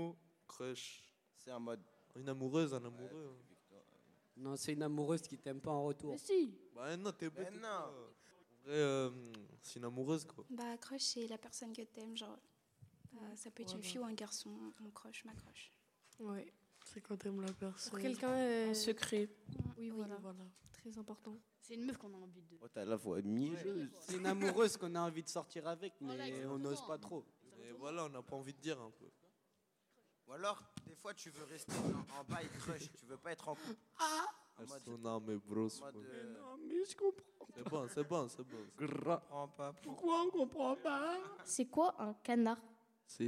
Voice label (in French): Patois Ados; sexualites adolescentes a l'ere du numerique